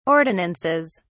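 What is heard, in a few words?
"ordinances", English, British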